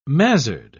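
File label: "mazzard", British English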